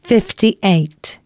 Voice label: announcements, female

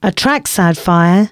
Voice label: announcements, female

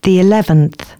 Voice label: announcements female

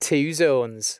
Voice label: 2 Zones, announcements male

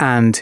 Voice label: announcements male

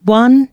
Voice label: announcements female

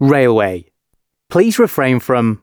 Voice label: announcements, male

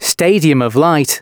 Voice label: announcements male

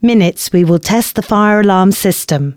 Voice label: announcements, female

